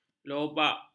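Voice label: "lobak", Malay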